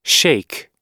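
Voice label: "shake", English; oxford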